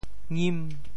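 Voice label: "ngim5", Teochew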